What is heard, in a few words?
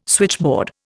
"switchboard", Indian